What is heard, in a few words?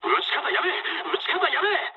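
player friendly fire mood low, speech japanese; War Thunder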